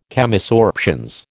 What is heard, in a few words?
"Chemisorptions", American